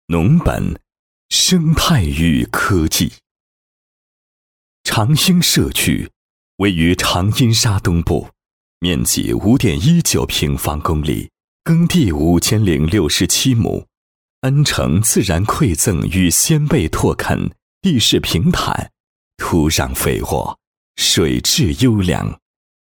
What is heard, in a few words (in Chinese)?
专题干声; 常兴宣原